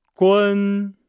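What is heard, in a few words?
"gun", Chinese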